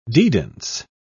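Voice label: "dedans", British English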